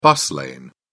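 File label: "bus lane", British English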